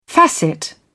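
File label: "facet", British English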